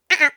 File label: Cartoon Oh Oh